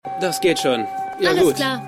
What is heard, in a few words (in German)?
Junger Mann; Stimmproben; Eureka